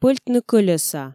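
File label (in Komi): пӧльтны кӧлеса, Komi, female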